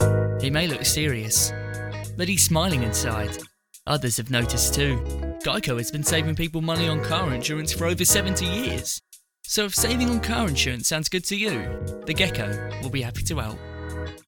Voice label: British; GEICO